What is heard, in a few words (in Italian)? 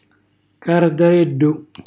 "cardarellu", Calabrese